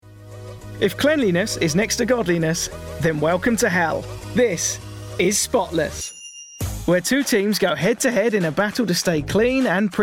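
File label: documentary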